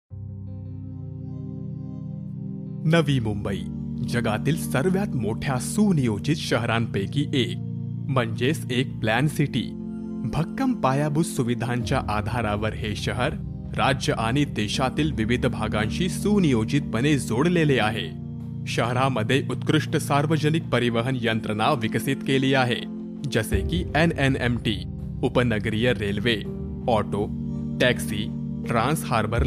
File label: AUDIO VISUAL MARATHI